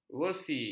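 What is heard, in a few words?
"versi", Malay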